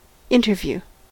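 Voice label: "interview", US English; pronunciations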